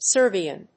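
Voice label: "Serbian", English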